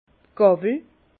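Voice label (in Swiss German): "gawel", Alsace Bas Rhin; fourche de la vigne; vin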